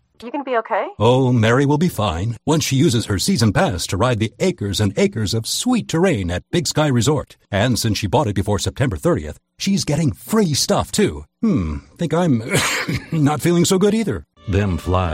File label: Commercial